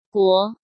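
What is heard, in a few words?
"Guó", Chinese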